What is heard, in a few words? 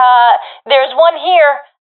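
sees one enemy, professional female; vo; Counter Strike Model Pack; CS:GO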